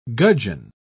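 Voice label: "gudgeon", British English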